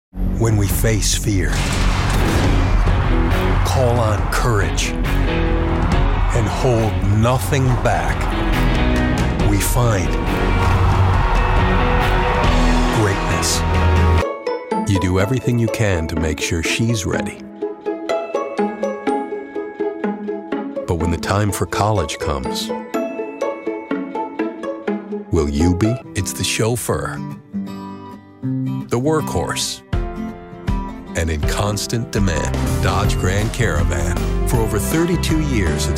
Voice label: Commercial

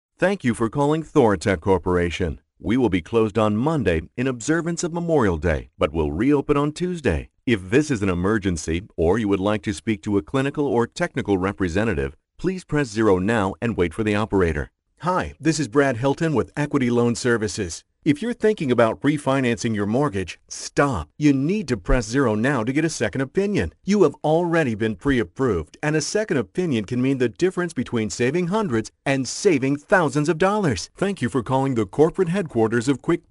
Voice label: IVR

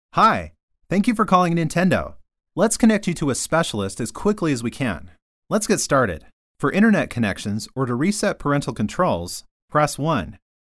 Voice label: ivr

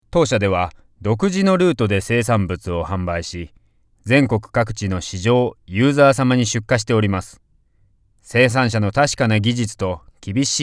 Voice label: corporate

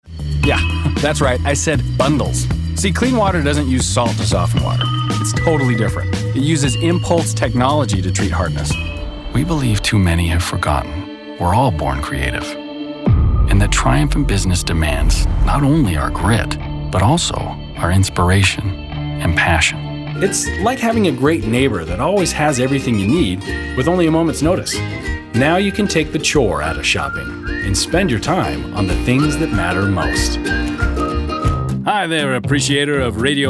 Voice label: Commercial

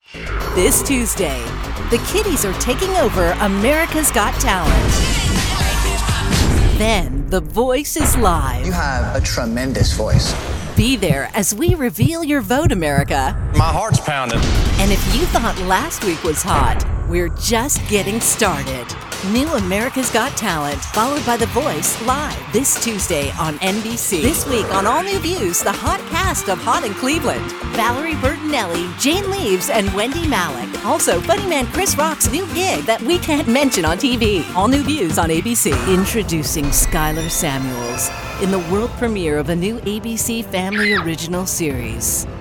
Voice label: promo

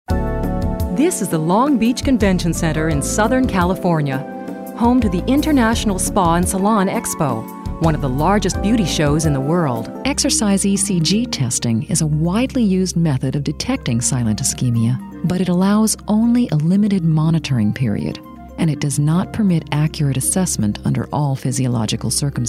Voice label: Narration

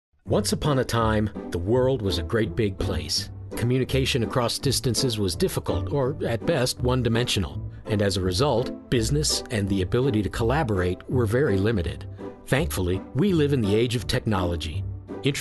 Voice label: Narration

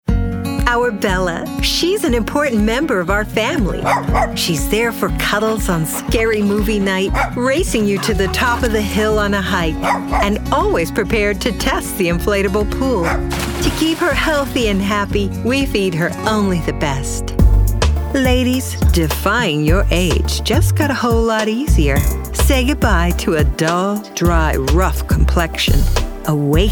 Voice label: Commercial VO

